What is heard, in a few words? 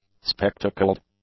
"spectacled", english; adjectives